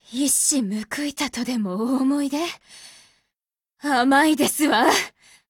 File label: BREAK JP; P10C